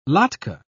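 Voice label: "latke", British English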